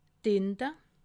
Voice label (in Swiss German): "tinde", alsace